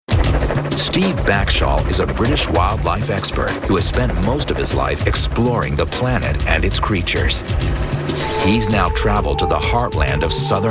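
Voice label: narration, voice actor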